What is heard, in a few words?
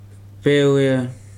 "full", Weri